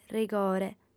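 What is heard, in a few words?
"rigore", female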